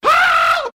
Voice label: "ho" male; ooh ahh; ho hoo woo

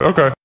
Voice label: "okay", expletives

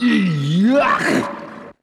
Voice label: yuck, cartoon; Voice

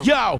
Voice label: "yo", voice

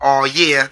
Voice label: "ah yeah", voice

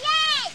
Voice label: "yay", child; ooh ahh

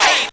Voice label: "ay", voice

